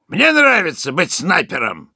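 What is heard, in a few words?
award, Russian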